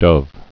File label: "dove", English